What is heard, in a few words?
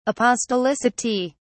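"apostolicity", British English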